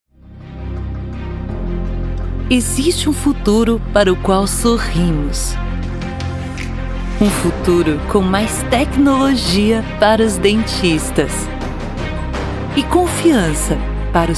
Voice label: portuguese brazilian medical narration

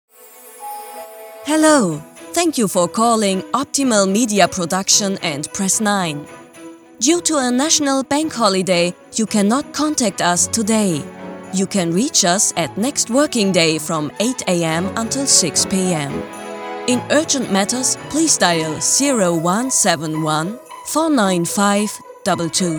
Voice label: english ivr

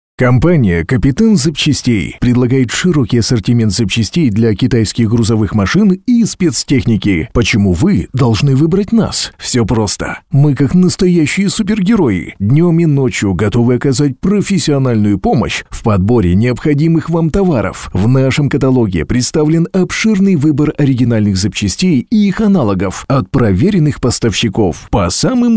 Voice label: russian automotive

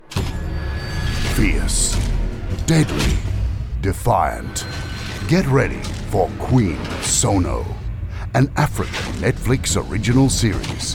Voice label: english australian promos

